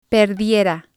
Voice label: "perdiera", spanish

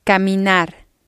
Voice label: "caminar", spanish

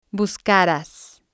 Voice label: "buscaras", spanish

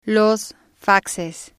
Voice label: "los faxes", spanish

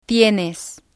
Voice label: "tienes", spanish

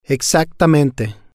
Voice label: "Exactamente", spanish

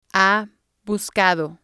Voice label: "ha buscado", spanish

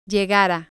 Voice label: "llegara", spanish

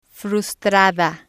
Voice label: "frustada", spanish

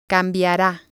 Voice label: "cambiara", Spanish

